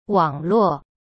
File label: "wang3luo4", Chinese; cyberchinese